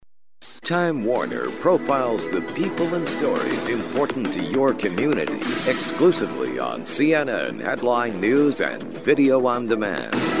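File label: english american male voice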